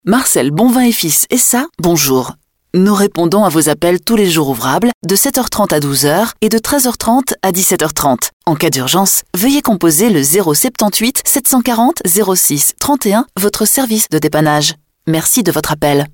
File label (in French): Message repondeur; A1 debutant